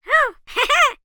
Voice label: cartoon character high pitched voice says oh hehe